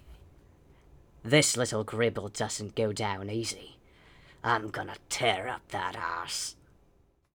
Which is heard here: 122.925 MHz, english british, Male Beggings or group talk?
Male Beggings